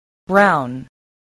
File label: "brown", spelling